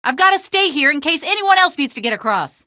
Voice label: plank, male; Source Engine; video game; streetwar; tunnel